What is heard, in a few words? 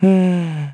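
Vox Think, Korean; Evan; kingsraid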